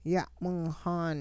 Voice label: he picked it up, hupa

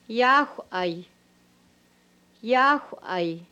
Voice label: I m sitting up, hupa

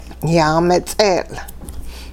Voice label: animals forearms, hupa

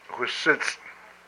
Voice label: "my skin", hupa

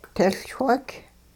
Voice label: sweep things up, hupa

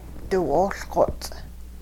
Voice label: y all bit off something crunchy, hupa

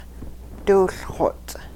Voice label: you bit off something crunchy, hupa

